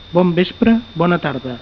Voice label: good eve, Catalan